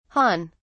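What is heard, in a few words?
"han", British English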